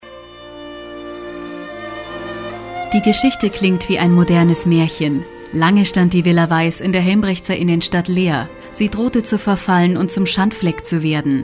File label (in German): Radio Beitrag; VILLA WEISS